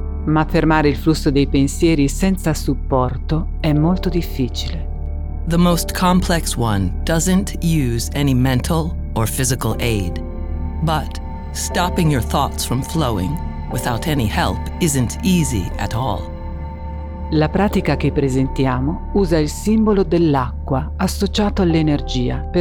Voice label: Water meditation Two voices